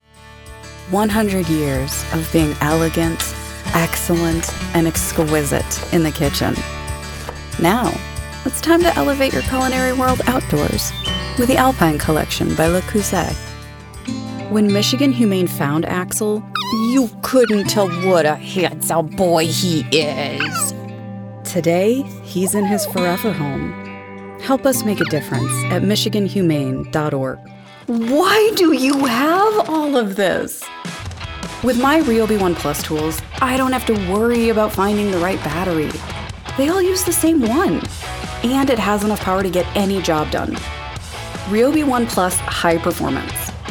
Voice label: Commercial